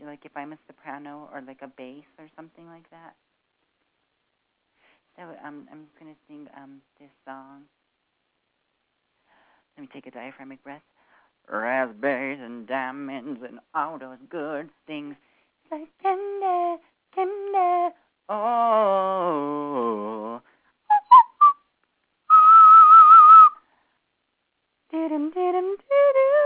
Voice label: voice message